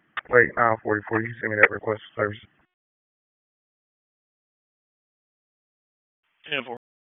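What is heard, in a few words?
Dispatch, P25; WSO DISP 1; wake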